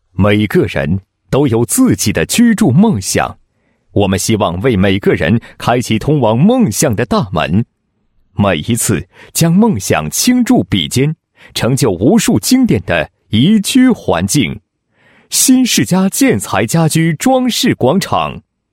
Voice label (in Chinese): 男 宣传广告; 新世家建材